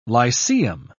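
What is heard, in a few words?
"lyceum", British English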